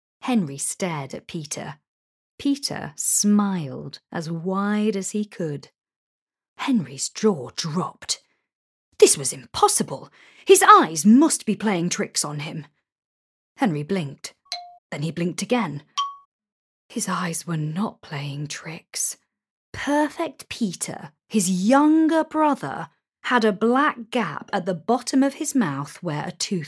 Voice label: Audiobook

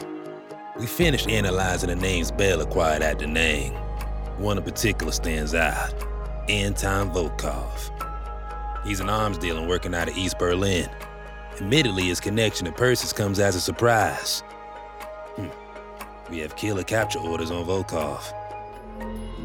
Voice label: Character

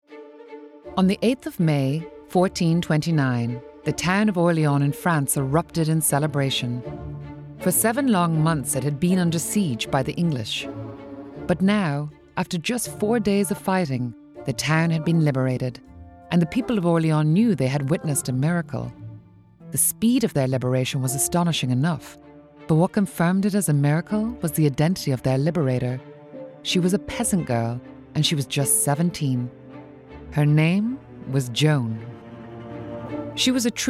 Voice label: doco

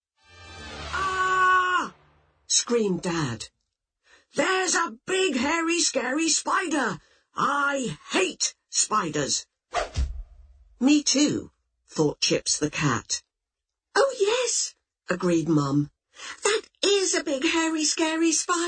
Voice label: audiobook; SPIDER

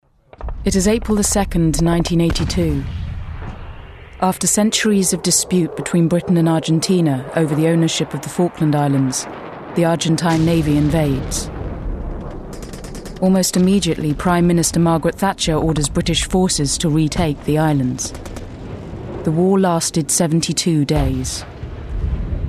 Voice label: Falklands narration

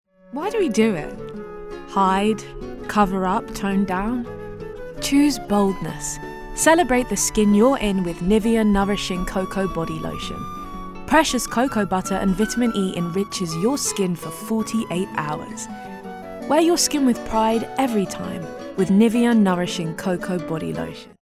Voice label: commercial; Nivea Cocoa Body Lotion

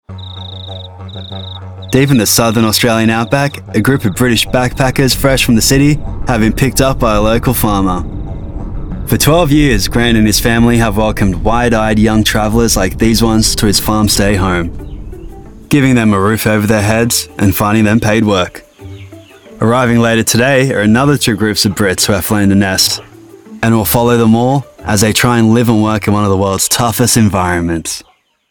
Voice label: Narration; Brits Down Under

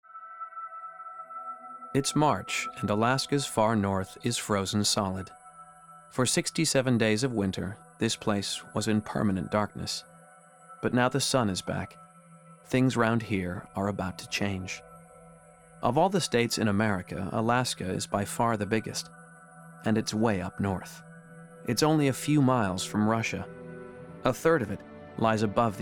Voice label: narrative; Alaska

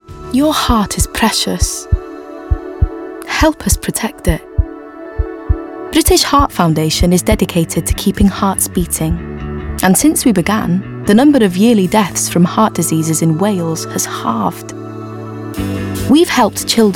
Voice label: British Heart Foundation Commercial

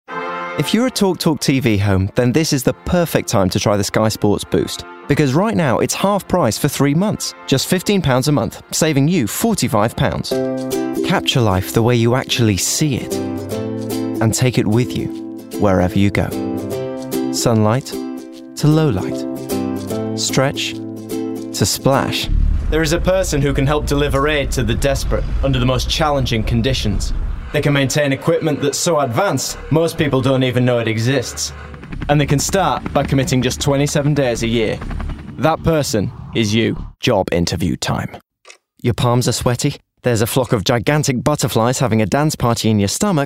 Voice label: Commercial Voice reel

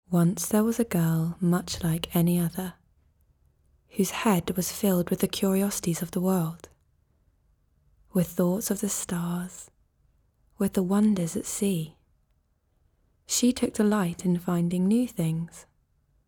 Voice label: NARRATION

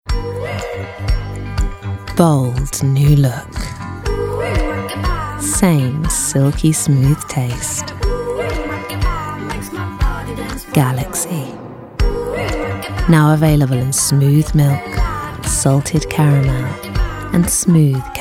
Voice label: COMMERCIAL; GALAXY

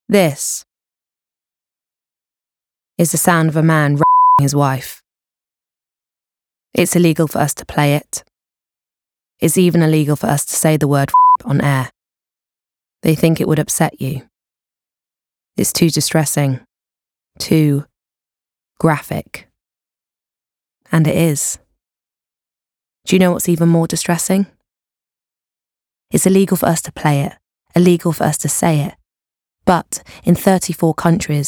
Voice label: WOMEN COMMERCIAL

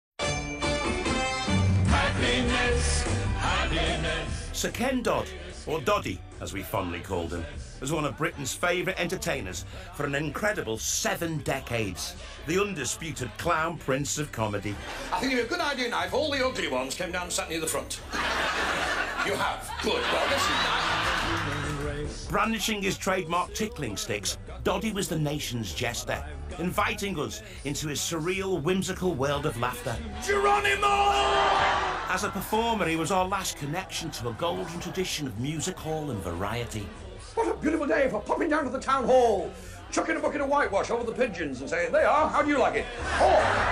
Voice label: Documentary

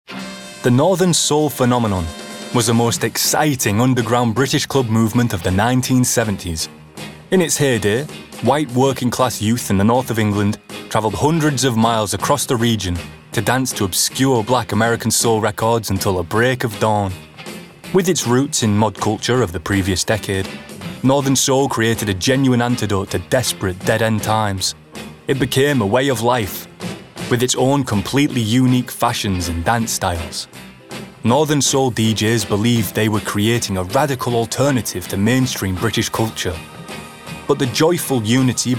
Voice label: documentary; Northern Soul

